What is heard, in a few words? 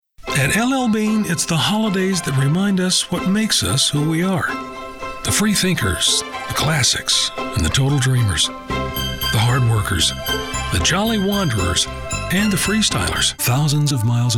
commercial